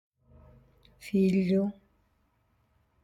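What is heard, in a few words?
"Fìgliu", Sardinian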